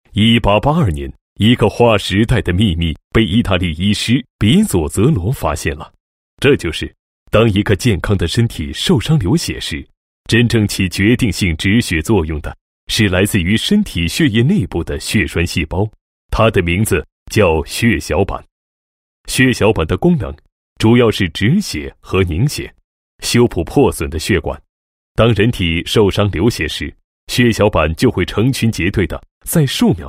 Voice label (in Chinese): 男 公益宣传; 捐献血小板